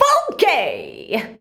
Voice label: "FUNKY", SHOUTS; Best Service; Hyper Dance

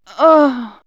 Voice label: Men Women Animal Magic, vox; vox mix; X-Static Goldmine

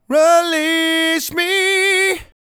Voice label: "Release Me", vocal phrases; Ultimate Vocals

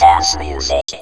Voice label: DANCE MUSIC, Funky Vocals; USB Soundscan vol.18; AKAI; COMPUTER

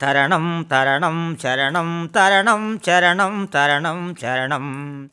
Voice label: MAN VX, Indian Traditions

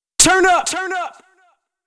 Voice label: 128 BPM Fx Vox Turn up; Demo Constr Kits; Sidewalk Kit Root G

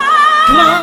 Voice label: AAH COMON, ADLIB WOM, Funky Vocals; USB Soundscan vol.18; AKAI

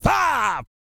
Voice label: COUNT, Vocal Planet, R&B & Dance, COUNTING; ILIO

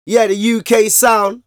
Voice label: Vocals; Jungle Frenzy 1

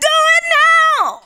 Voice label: DO IT NOW, FEMALE VOICE; Best Service; Hallelujah

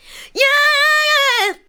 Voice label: ADLIBS 90; Ueberschall; Jam Box

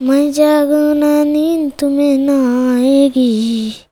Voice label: CHILD VX, Indian Traditions

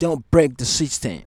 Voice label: "dont break", shouts; Best Service; Hyper Dance

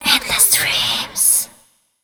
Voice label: fem spo, Vocals; Mutekki Media; Diamond Vocals 2

